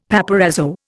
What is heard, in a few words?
"paparazzo", Indian; Dictionary